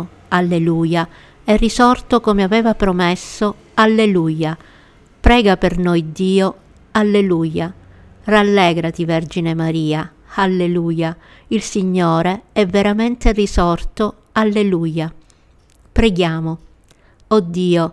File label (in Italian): Regina coeli italiano; Tempo di preghiera